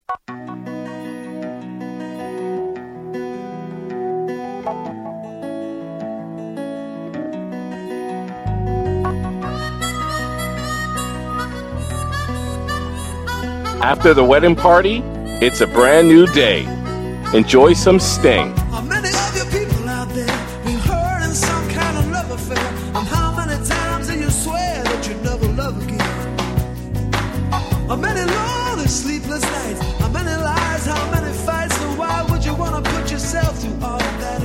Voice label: voiceover music